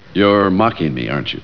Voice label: mocking